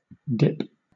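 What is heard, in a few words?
"dip", British English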